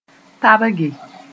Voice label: "tabge", moore; march over once